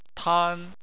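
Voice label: "tan", Chinese, first tone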